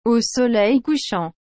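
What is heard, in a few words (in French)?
"au soleil couchant", French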